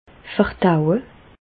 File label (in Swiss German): "verdaue", Alsace, Bas Rhin; medecine; digérer